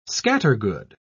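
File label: "scattergood", British English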